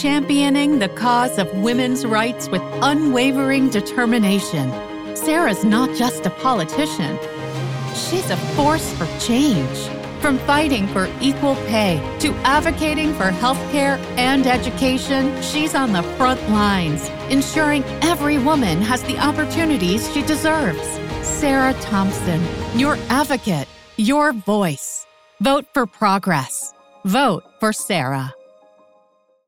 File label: Democrat Image Ad VO